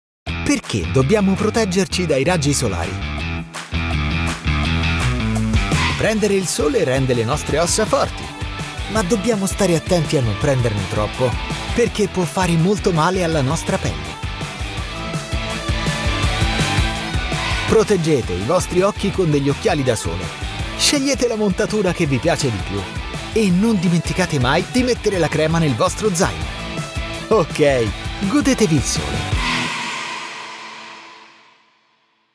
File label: italian voice explainer